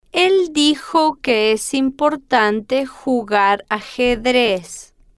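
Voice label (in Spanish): el dijo que es importante jugar ajedrez, Spanish, female, slow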